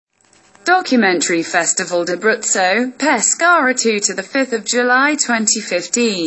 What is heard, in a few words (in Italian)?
inglese